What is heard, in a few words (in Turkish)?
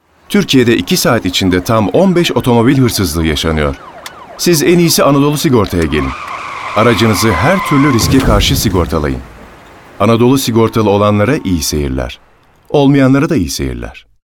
enerjik tempolu